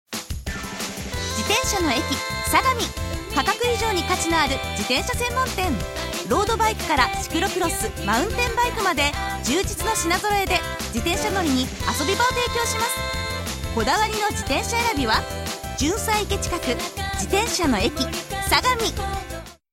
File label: bicycle cm